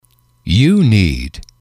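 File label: you need, English, male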